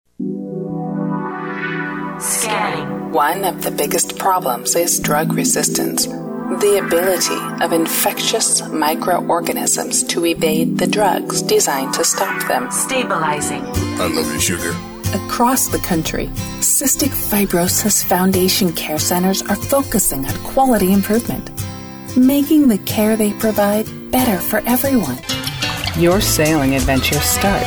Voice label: narration